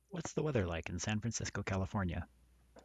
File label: whats the weather, pcm16 24khz mono